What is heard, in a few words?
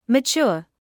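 "mature" English, British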